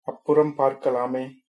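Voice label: goodbye, Tamil, male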